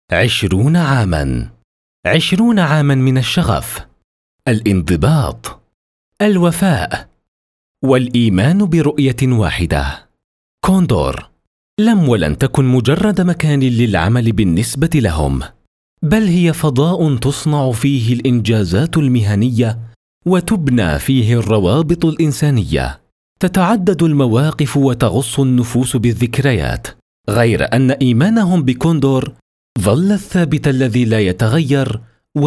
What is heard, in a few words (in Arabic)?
تسجيل صوتي, voiceover ads